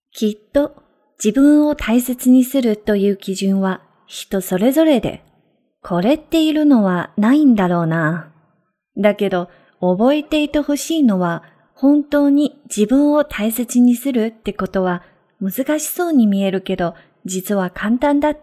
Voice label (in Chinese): 日语